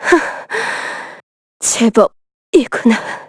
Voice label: Vox Dead, kr; Lucikiel L; kingsraid

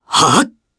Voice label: Vox Casting jp; Fluss; kingsraid

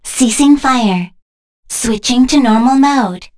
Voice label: Vox Victory, en; Kara; kingsraid; voices heroes en

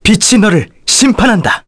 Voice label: Vox Skill, kr; Arch; kingsraid

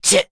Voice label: vox, en; kingsraid; voices heroes

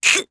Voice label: Vox Damage jp; Hilda; kingsraid

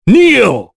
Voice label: Vox Skill, en; Kain; kingsraid; voices heroes en

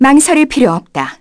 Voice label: vox get, kr; Artemia; kingsraid

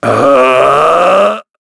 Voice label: Shakmeh Vox Casting, voices heroes en; kingsraid-audio

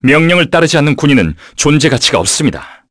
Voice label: Vox, kr; Fluss; kingsraid

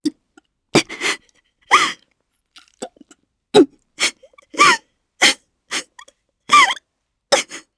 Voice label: Sad, jp; Valance; kings raid

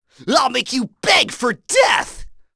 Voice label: Vox Skill, en; kingsraid

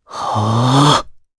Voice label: Vox Casting jp; Pavel; kings raid

